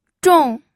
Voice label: "zhong4", pinyin